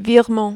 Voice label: "virement", French

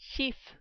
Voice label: "chiffe", French

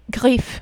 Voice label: "griffe", French

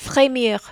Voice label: "fremir", French

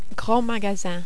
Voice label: grand magasin, French

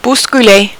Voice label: "bousculer", French

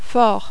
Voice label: "fort", French

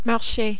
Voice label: "marche", French

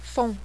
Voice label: "fond", French

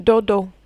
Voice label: "dodo", French

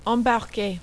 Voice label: "embarquer", French

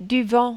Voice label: "du vent", French

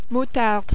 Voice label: "moutarde", French

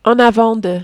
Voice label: en avant de, French

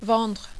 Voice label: "vendre", French

